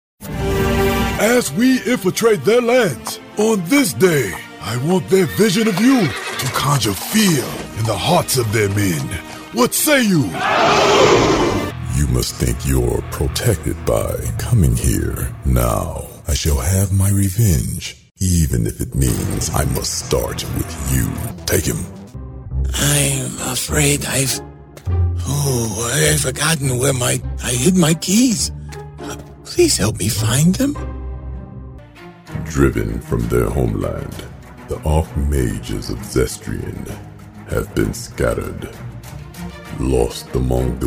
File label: english american video games